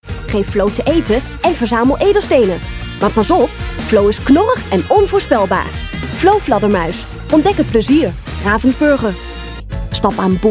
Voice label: Demo NL

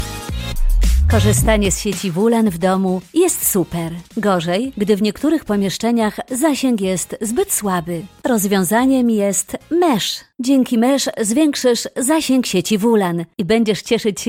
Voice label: Pools vrouw

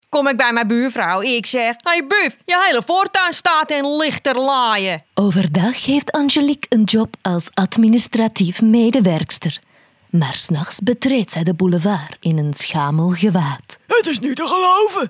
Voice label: karakterstemmen

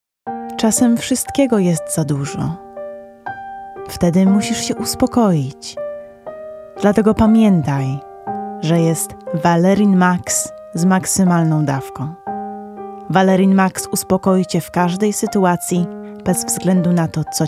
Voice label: Pools vrouw

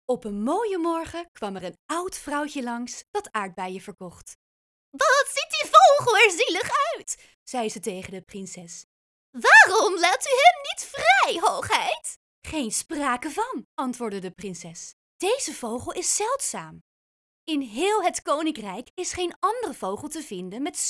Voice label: kinderluisterboek

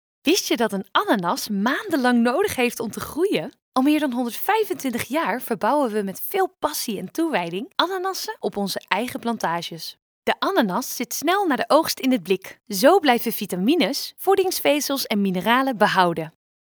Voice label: Enthousiast vrolijk vriendelijk Nederlands

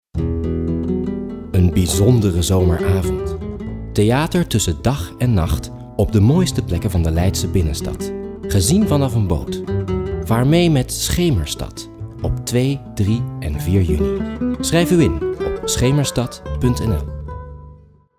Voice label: spot; Schemerstad 2017

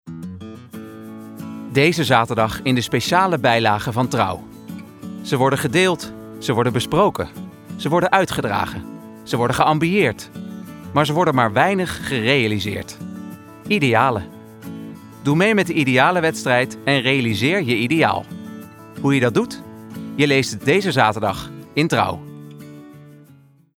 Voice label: Betrouwbaar

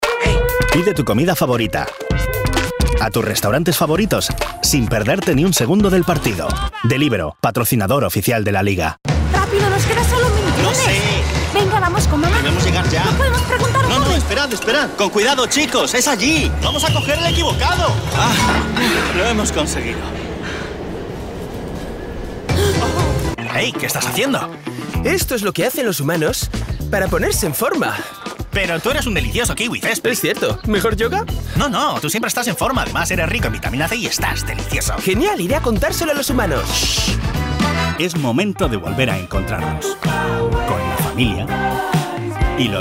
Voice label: Spaans Man

